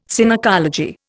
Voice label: "Synecology", Indian

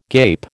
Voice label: "gape", American

